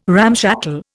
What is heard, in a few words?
"ramshackle", Indian